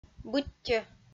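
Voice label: "быттьӧ", Komi-Zyrian, female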